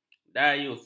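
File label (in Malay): "dayus", Malay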